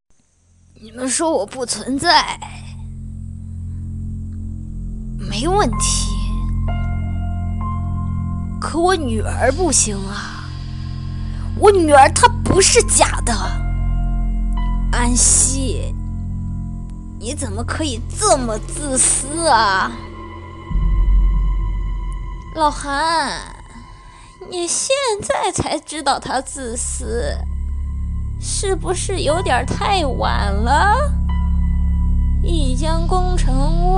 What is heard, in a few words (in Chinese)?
各种声线